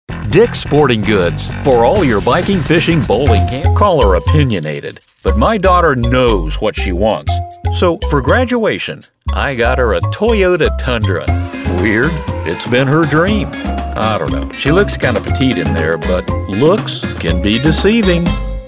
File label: Commercial American man